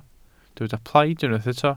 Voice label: "plaid", Welsh

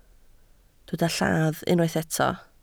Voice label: "lladd", Welsh

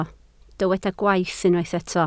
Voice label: "gwaith", Welsh

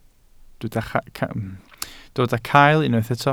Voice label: "cael", Welsh

